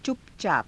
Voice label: "cupacaapa", Hindi